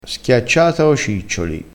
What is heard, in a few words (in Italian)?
"ciccioli", crespinese